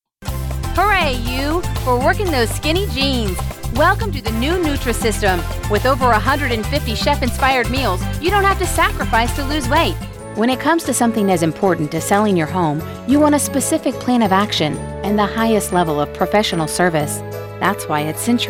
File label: Commercial